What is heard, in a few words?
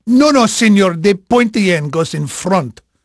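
Spanish male, voice; Pirates Of The Caribbean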